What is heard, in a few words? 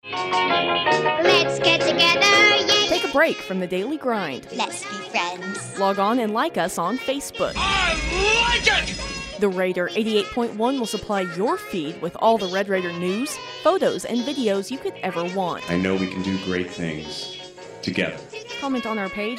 Station Imaging Promo; KTXT; Facebook